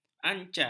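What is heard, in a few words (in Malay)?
"ancai", Malay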